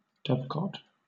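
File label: "dovecote", British English; pronunciations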